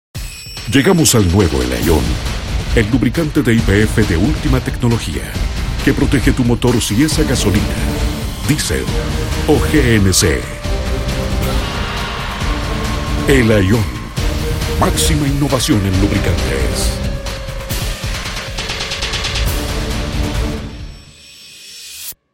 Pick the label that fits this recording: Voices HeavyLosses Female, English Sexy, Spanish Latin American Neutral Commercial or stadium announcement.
Spanish Latin American Neutral Commercial